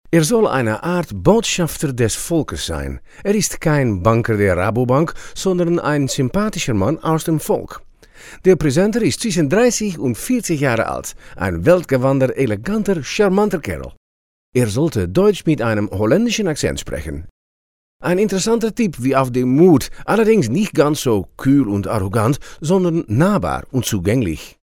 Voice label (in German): Akzent Hollaendisch